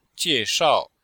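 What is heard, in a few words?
"jie4shao4", Chinese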